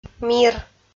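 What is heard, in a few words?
"мир" Komi, female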